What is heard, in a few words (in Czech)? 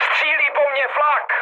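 Czech damaged anti-aircraft, mood medium; War Thunder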